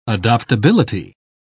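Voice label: "adoptability", British English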